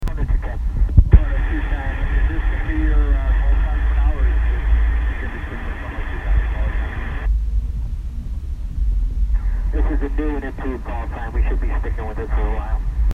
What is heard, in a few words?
ATC Audio